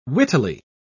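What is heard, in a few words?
"wittily", English, British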